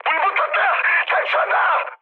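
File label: Korean on fire mood high; speech; War Thunder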